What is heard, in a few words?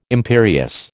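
"imperious", American English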